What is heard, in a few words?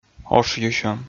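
"ошйысьӧм", Komi, male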